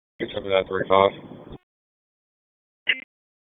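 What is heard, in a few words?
Raleigh Police Northeast District Dispatch, p25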